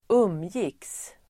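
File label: "umgicks", Swedish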